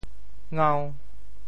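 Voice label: "ngau5", Teochew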